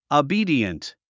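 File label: "obedient", English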